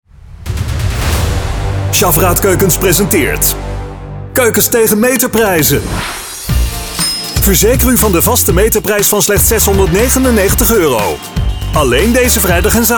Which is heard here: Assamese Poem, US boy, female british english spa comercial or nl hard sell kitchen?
nl hard sell kitchen